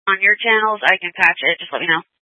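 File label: County Fire, Scanner audio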